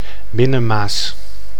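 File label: "Binnenmaas", Dutch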